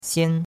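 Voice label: "xian1", Mandarin Chinese; Cours-de-Chinois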